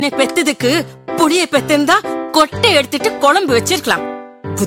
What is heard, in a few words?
Mom Scolding